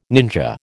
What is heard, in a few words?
"ninja", American